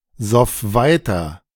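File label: "soff weiter", German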